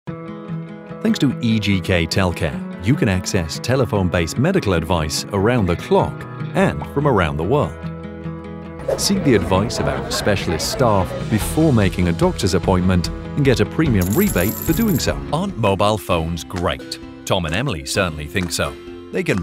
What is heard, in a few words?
english british